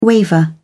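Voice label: "waver", British English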